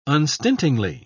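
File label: "unstintingly", British English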